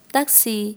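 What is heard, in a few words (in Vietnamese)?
"Taxi", tiếng việt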